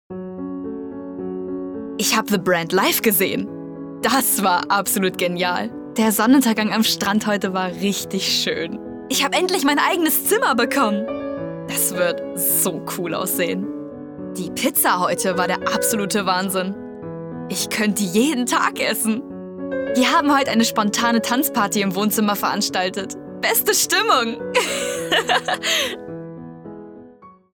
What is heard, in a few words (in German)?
Froehlich mit Musik, German; Comedy, Audioguide, Audiobook (Hörbuch); Fröhlich; Norddeutsch; Eastern European; Synchronstimme: Wiona Ryder